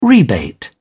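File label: "rebate", British English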